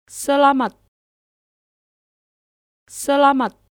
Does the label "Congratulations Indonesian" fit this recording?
Yes